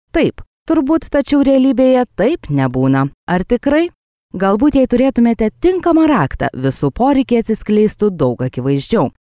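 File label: Lithuanian, female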